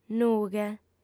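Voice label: "nughe" Sardinian, female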